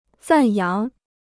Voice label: "赞扬", Chinese

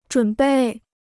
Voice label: "准备", Chinese

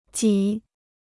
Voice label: "几", Chinese

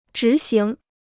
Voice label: "执行", Chinese

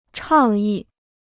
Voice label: "倡议", Chinese

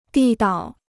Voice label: "地道", Chinese

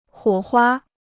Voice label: "火花", Chinese

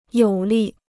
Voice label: "有力", Chinese

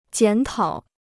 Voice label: "检讨", Chinese

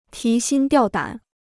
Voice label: "提心吊胆", Chinese

